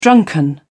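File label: "drunken", British English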